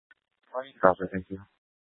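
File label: Tempe Police Department, scanner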